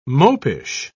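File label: "mopish", British English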